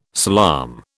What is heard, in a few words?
"salaam", British